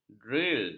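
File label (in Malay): "dril", Malay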